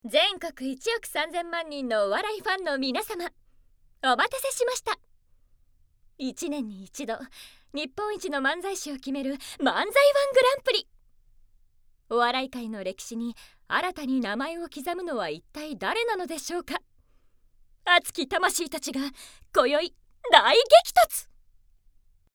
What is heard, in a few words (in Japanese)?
番宣ナレーション